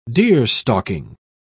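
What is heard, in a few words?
"deerstalking", British English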